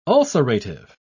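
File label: "ulcerative", British English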